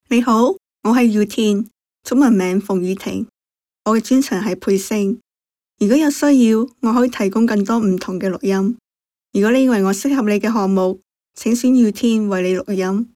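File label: Chinese Canada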